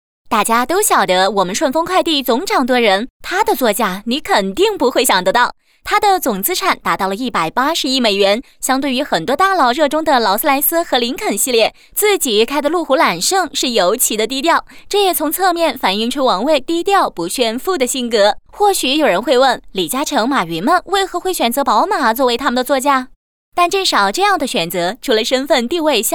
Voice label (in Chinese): 女; 飞碟说; 豪车座驾